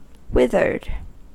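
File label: "withered", American English; pronunciations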